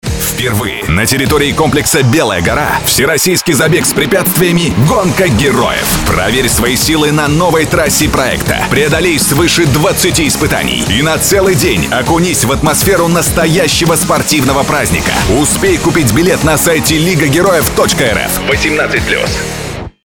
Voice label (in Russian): Рекламный аудиоролик